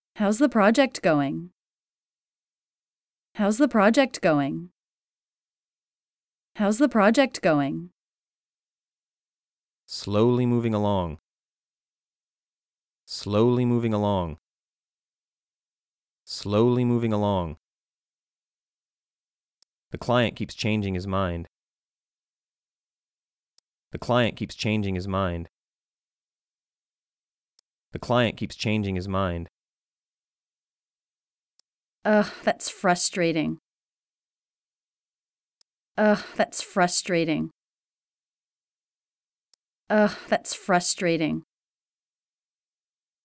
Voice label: At Work Dialog Practice